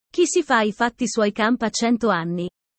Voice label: italian sayings about life